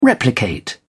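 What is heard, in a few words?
"replicate", British English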